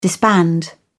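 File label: "disband", British English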